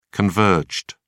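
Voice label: "converged", British English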